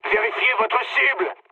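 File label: player friendly fire, mood low, French; War Thunder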